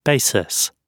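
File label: "basis", British English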